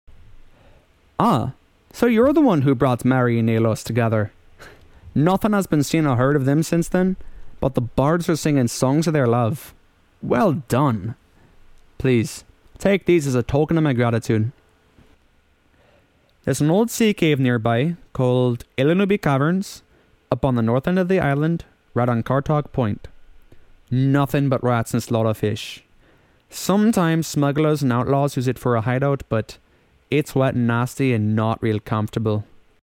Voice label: breton audition two

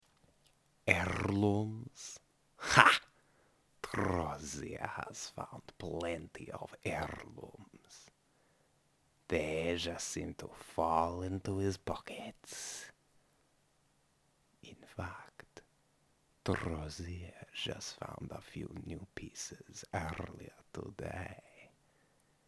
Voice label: male khajiit audition; drozhirr

